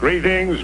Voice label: "greetings", voice

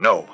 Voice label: "no", strong dude; Voice; expletives

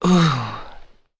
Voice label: "ooh" sexy; Voice

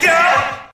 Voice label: "yo" distant; Voice; ooh ahh

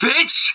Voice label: "bitch", expletives

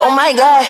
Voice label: "oh my god", male; Voice

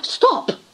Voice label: "stop", british dame; Voice

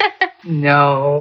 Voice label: heh heh no, expletives

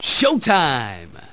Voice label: "showtime", male; Voice; introductions

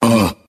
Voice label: "uh", male

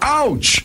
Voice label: ouch infomercial; ooh ahh; ow ouch

